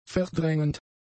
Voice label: "verdrängend", German; adjectives

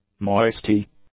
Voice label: "moisty", English; adjectives

